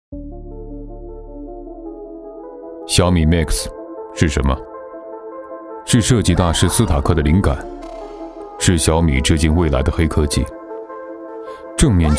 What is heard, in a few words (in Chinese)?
男 广告; 小米MIX